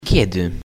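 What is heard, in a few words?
"When", Polish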